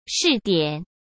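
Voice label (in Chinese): "试点", Mandarin Chinese; chinese-flashcards; hsk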